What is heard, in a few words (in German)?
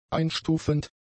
"einstufend", german; adjectives